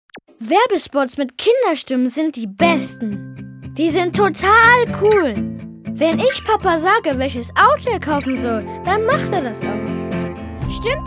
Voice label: Werbung

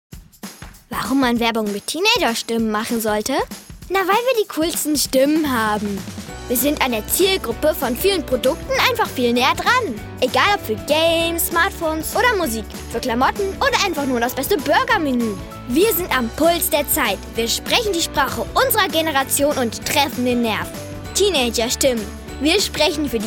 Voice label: Werbung

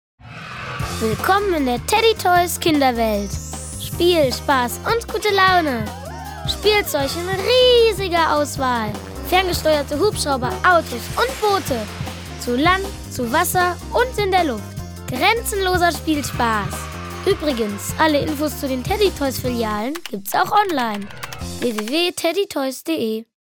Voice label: Werbung Spielzeug

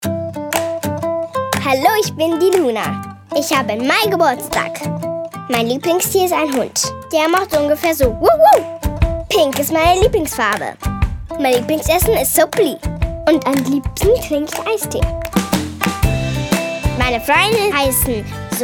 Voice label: Selbstvorstellung DE

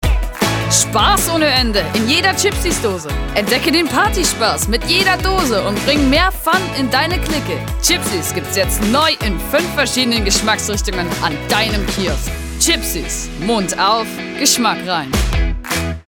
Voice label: Werbung; Chipsies

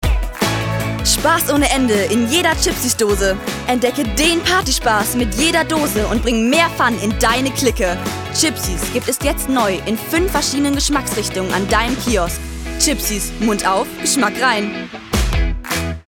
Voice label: Werbung

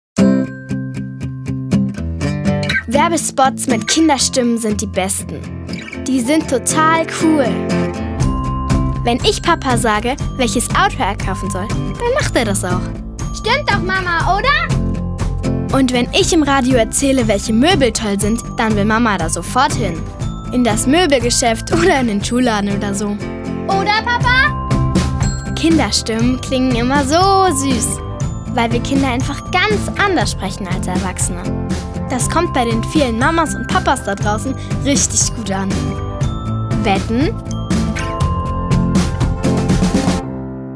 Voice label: Werbung

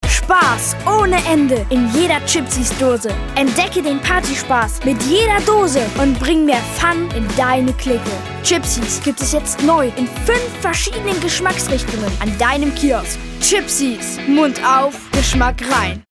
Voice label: Werbung

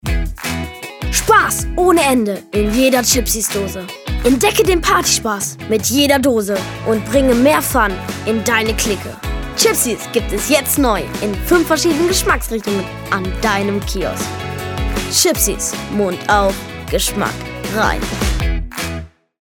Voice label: Werbung DE; Chipsies

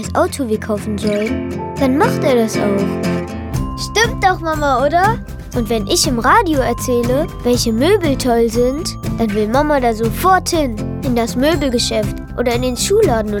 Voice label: Werbung Kinderstimmen

